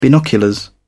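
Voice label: "binoculars", English, uk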